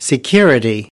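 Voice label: "security", English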